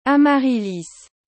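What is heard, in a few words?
"amaryllis", French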